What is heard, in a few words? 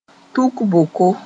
"tuk boko", moore